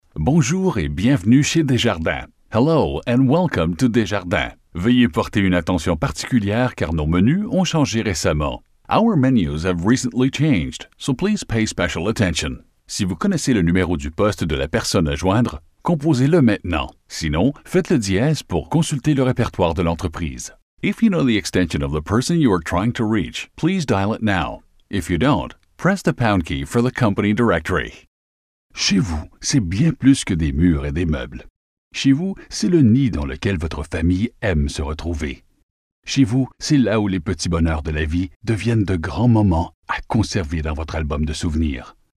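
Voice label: french canadian interactive voice response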